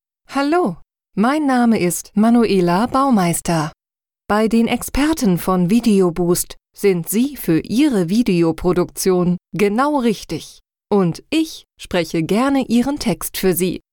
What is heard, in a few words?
Voiceover